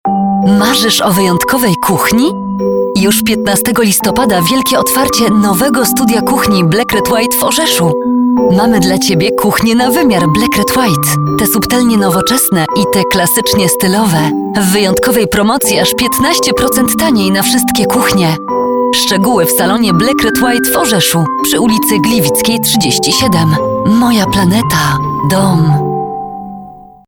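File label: Polish, female, commercial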